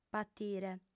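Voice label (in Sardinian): "patire", female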